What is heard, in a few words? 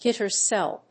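"Gitter cell", English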